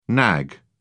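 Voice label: "nag", British English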